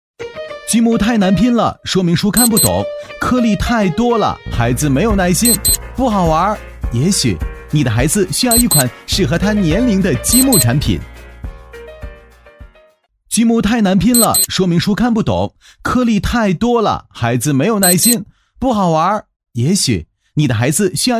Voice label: 男-广告-轻快活力, 年轻厚重 轻松活泼; 迷你遥控

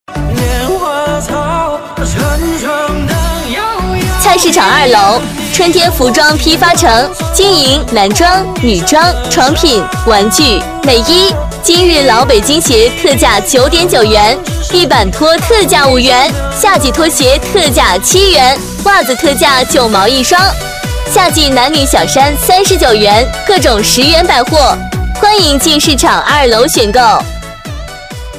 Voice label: 甜美欢快女 春天服装批发城; 激情力度

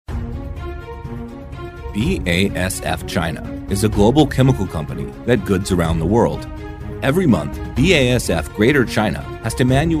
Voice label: 英式美式 公司宣传片

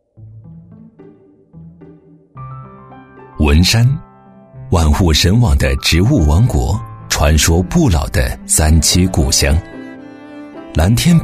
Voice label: 男 纪录片 温和讲解; 大气主流 自然诉说

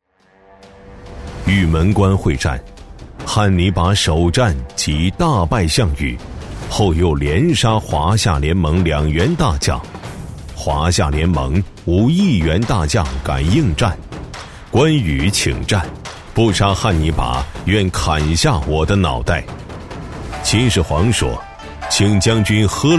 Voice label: 男 旁白 历史 故事片旁白, 年轻浑厚 自然诉说

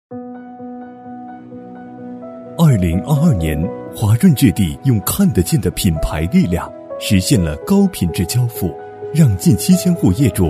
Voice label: 男 房地产广告 年轻走心; 华润置业; 男DMR-大气沉稳; 质感磁性

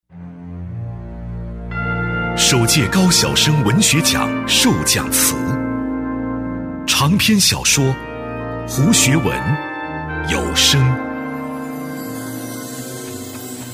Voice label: 男 知性 涵养 颁奖类 文学奖颁奖词

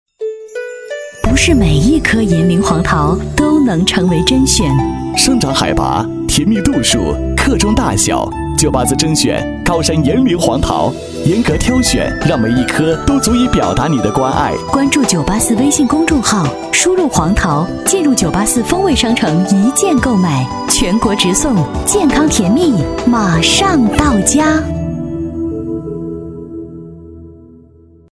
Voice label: 女 广告 成熟质感; 炎陵黄桃; 质感磁性

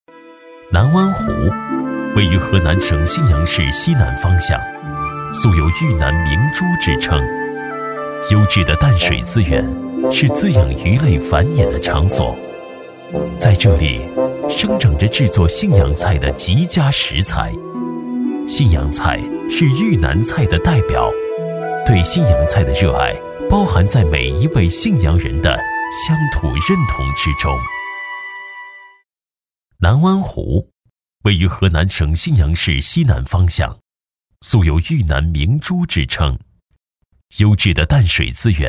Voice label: 男 纪录片讲解, 硬朗力度 舌尖感

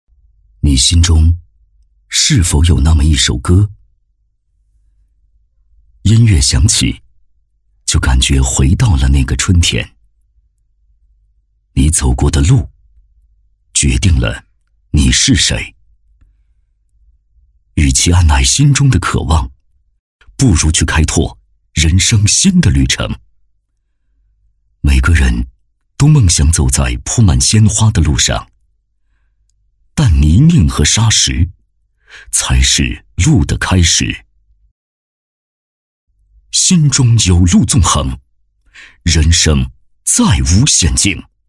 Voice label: 男 学校宣传 低沉走心; 高端厚重; 高端大气; 颐和中学

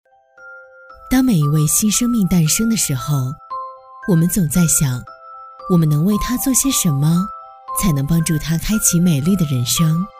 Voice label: 女 教育专题 品质温馨, 沉稳大气; 托未来保育

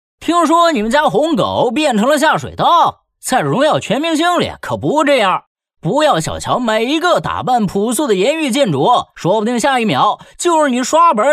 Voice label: 男, 游戏角色, 声音百变 轻松活泼; 王者荣耀

